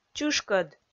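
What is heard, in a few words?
"чышкӧд", Komi, female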